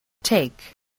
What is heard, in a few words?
"take", English